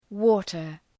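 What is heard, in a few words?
"water", English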